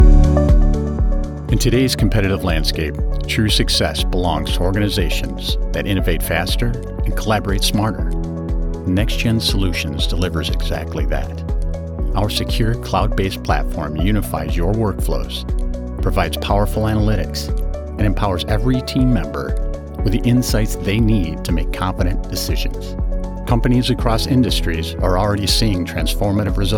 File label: Corporate; Next Gen